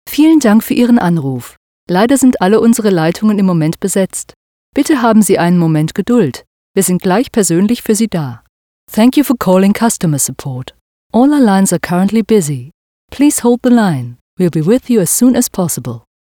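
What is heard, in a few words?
IVR German English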